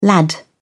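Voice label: "lad", British English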